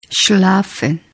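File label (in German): "schlafen", German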